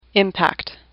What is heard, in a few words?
"impact", English; AWL; sublist 2